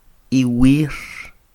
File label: "iwir", Quiché; ayer; yesterday; INALI; UTexas; CHQETA